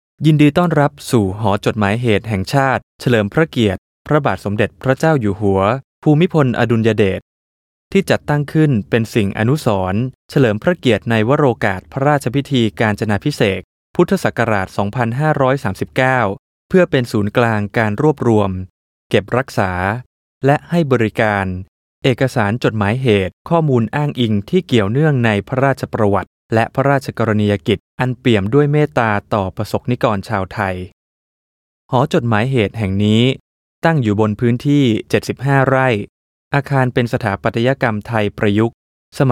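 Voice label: Thai, male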